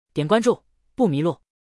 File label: outro voice